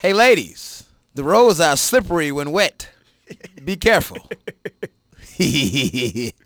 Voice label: Banter Weather Rain; Project Gotham Racing 2; radio; Power 92 Chicago